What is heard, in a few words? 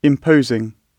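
"imposing", British English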